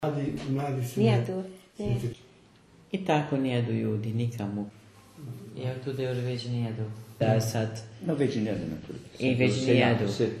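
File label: Long and rising ie: niéde 'does not go', dialect of Petrovo Selo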